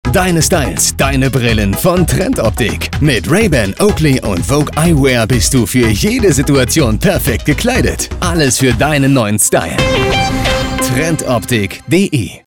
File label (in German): Werbespot; Trendoptik